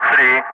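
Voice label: "3", calm, English; Ka-50; Wingman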